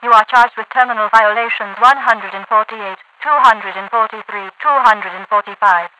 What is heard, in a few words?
you are charged with terminal, radio voice; Half Life 2